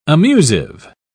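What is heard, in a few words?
"amusive", British English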